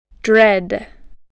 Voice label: "dread", English

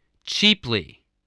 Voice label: "cheaply", English, voice

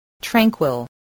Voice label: "tranquil", voice

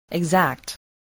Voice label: "exact", voice, english

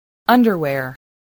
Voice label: "underwear", English spelling voice

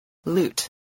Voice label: "loot", spelling

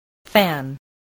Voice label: "fan", voice

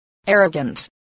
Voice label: "arrogant", English

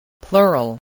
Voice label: "plural", English

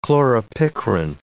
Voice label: "chloropicrin", English

